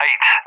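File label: "8", English, UK; Digits; DCS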